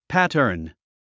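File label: "pattern", English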